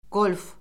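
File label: "qolf", Azerbaijani; Orfoqrafiya Lüğəti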